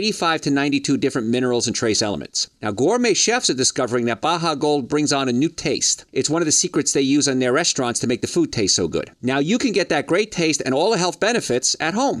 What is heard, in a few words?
sea salt 60 sec spot; Baja gold sea salt